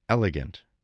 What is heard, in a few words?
"elegant", English